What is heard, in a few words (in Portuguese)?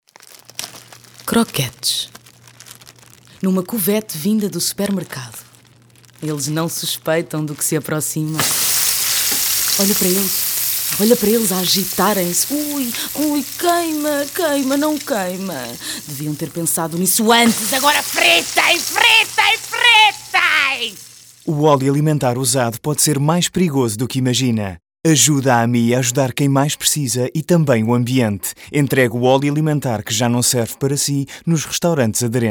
spot radio; ambiente reciclagem oleos; croquetes